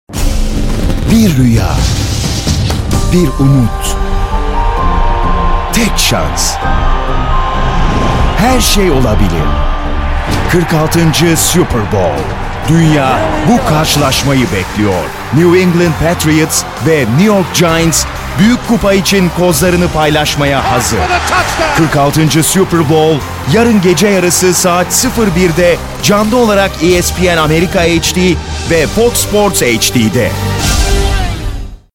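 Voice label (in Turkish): tanıtım, Turkish; superbowl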